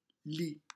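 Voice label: "lit", French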